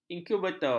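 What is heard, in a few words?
"inkubator", Malay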